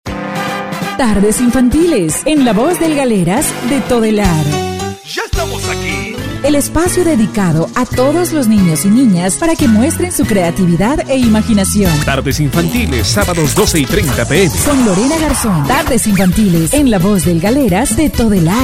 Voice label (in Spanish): tardes infantiles promo